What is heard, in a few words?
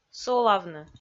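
"солавны", Komi, female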